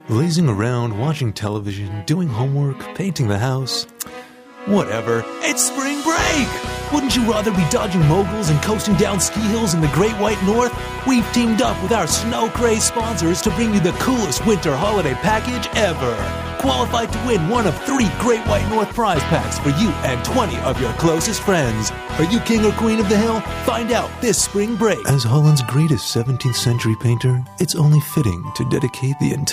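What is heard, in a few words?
English Canadian narration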